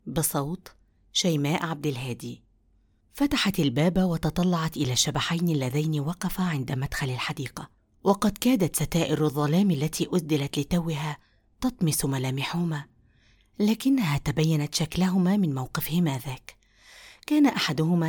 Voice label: Audio book

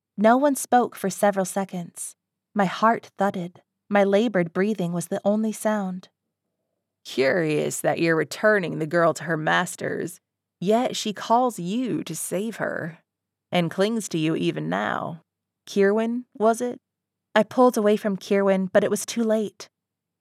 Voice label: Southern Accent Sample

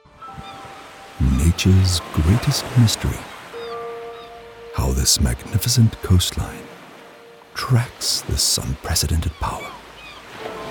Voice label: Commercial Demo

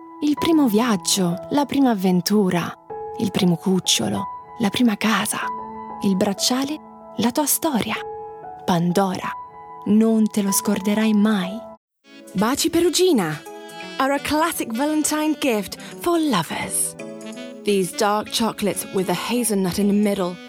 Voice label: ITALIAN

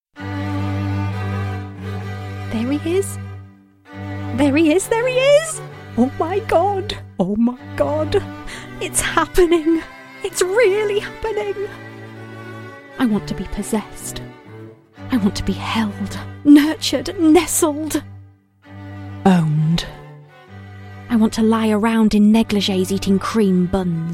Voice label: Narration Montage

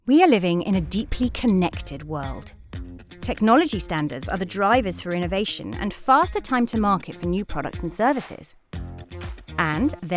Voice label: English Corporate

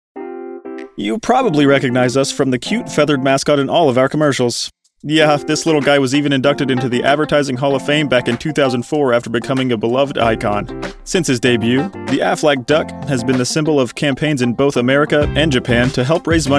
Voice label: Corporate

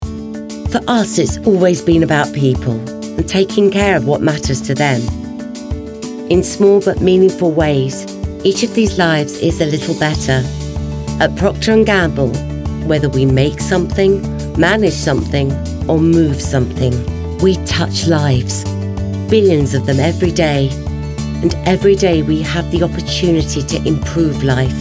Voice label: Corporate

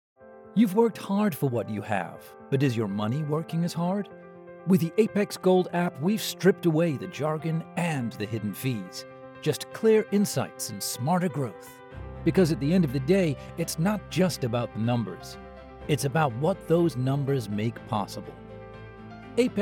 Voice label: Commercial; Apex Gold

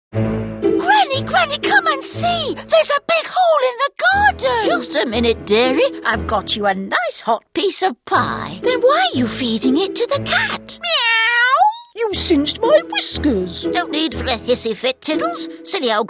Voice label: Animation Reel no slate

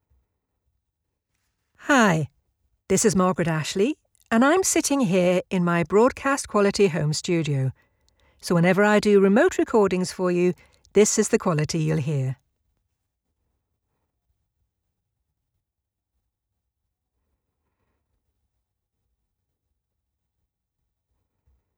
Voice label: Studio Sample RAW

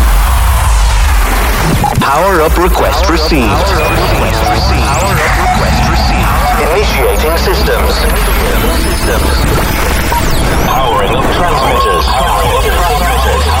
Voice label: Radio Imaging

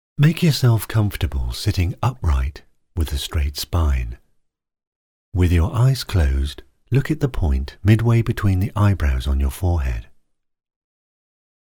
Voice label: Meditation

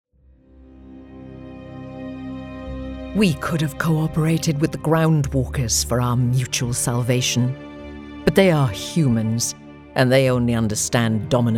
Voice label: Character

